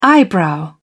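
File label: "eyebrow", British English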